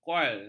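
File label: "kual", Malay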